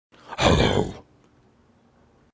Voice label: "Hello" Evil, A deep and evil